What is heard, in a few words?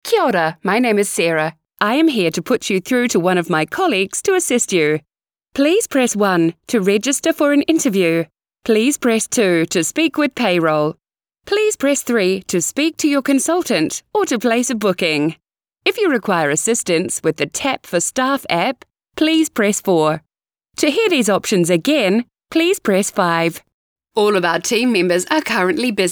IVR